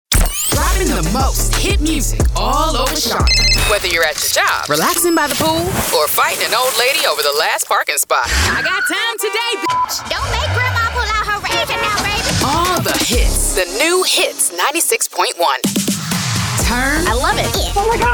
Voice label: Top40 Rock Imaging